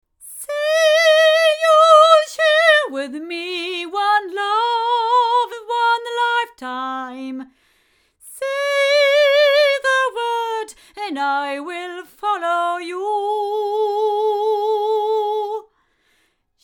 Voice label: female; LineAudio CM3; microphones